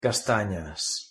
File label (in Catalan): "castanyes", Com pronunciar en català